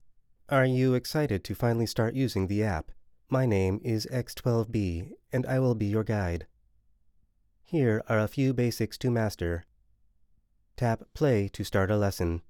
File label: Animation Game Sample, voice acting